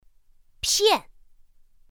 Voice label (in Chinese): "piàn", pinyin; pinyin2; pinyinji